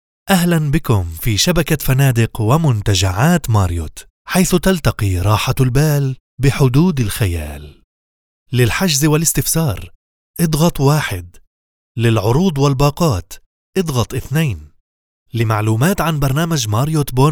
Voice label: arabic ivr